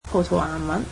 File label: "Portuguese", cantonese